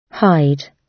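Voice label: "hide", British English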